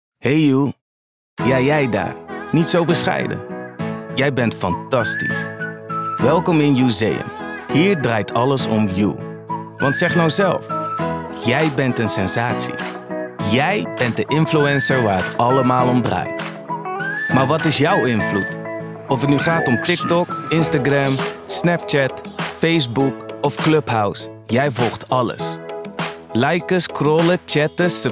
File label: NL introduction video; Youseum